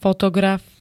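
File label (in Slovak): "fotograf", Slovak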